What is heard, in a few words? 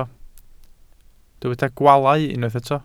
"gwaalau", welsh